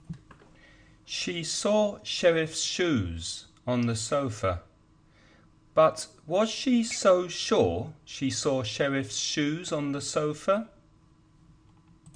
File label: tongue twisters, read listen repeat, she saw sherif's shoes on the sofa; trabalenguas